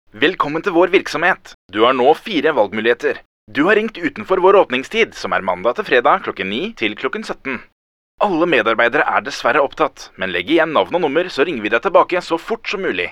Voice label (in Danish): norsk mand uformel